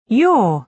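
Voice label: "your", British English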